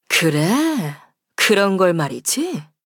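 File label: Equip, Korean; Sigrid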